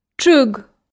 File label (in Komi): "тшыг", Komi